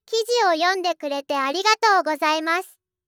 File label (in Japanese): 女の子